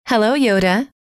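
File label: hello, female; trainer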